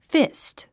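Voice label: "fist", English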